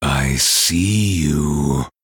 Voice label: Male Night Elf Greetings